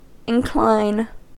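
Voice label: "incline", American English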